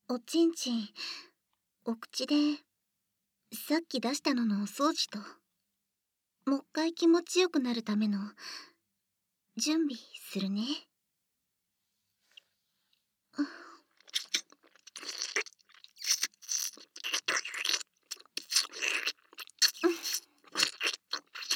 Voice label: フェラチオ, ASMR; サナ

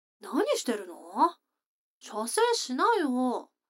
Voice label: 女の子 台詞

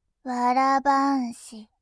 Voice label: わら半紙, ASMR; モノラル

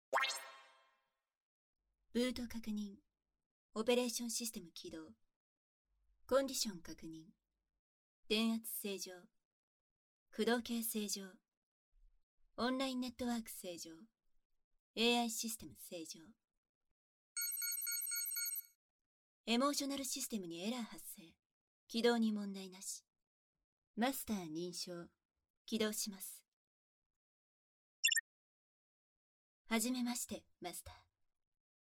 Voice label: 耳舐め 囁き; 手コキ

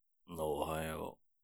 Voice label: mezamashi, ASMR

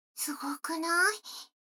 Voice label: 女の子台詞, ASMR

